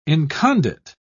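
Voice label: "incondite", British English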